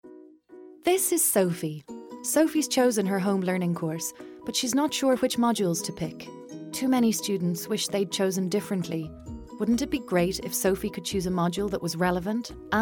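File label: Irish, female, explainer; accent of ireland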